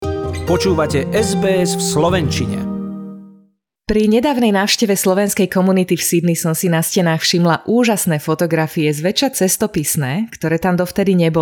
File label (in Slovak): slovak; sbs